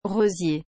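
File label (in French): "rosier", French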